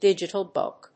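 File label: "digital book", English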